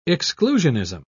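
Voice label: "exclusionism", British English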